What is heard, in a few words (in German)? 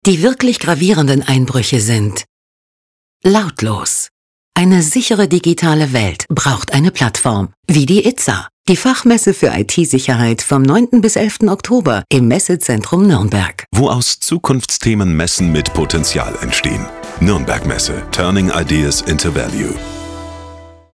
Radiospot; it-sa Digital Media